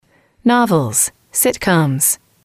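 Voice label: "novels sitcoms", English, female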